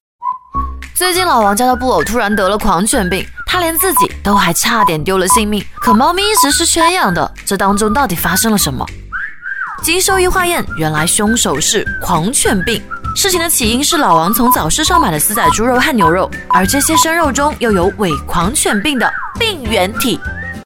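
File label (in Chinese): 台湾腔猫咪解说; 飞碟说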